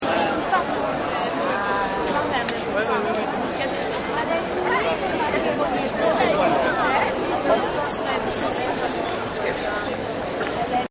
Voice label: Lots of Talking, Crowds; Backgrounds